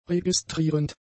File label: "registrierend", German; adjectives